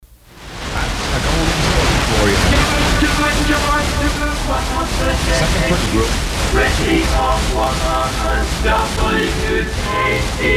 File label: Radio; IN South Bend 1968